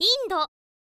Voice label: "india", info girl voice